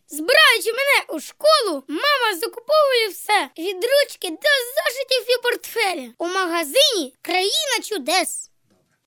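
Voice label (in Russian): мальчик 8 лет, Ukrainian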